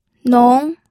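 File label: "nong", second tone, pinyin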